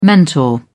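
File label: "mentor", British English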